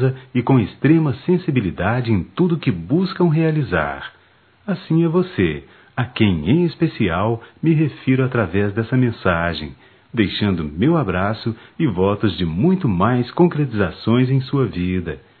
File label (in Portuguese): Dia da Mulher, Só Voz, masc; Telemensagens; Telemensagens 2017